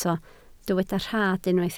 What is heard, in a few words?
"rhad", Welsh